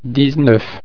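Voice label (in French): "dix-neuf", French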